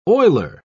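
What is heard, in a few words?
"oiler", British English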